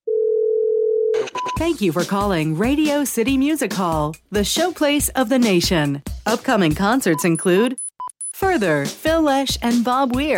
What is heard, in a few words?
american voice telephone